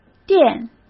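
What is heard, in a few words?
"垫", Mandarin Chinese; chinese-flashcards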